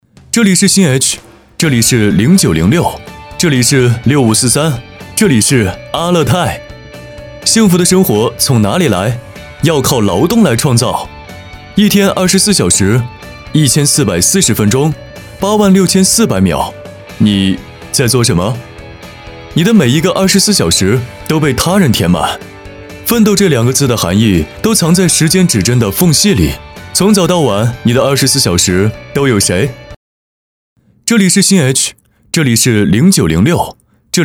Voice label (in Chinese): 男 宣传片 年轻阳光; 这里是阿勒泰